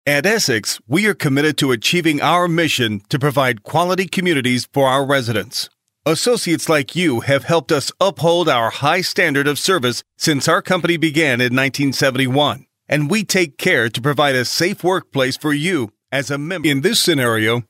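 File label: American English elearning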